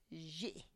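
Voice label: "zhi", Tlacochahuaya Zapotec; flu Cold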